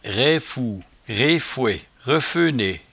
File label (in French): "raifoue", patois jura